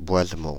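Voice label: "Boisemont", French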